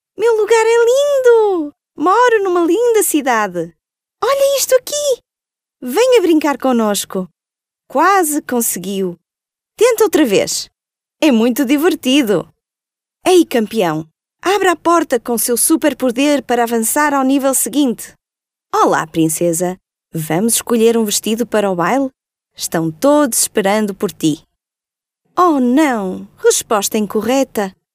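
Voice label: PT female children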